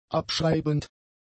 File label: "abschreibend", German; adjectives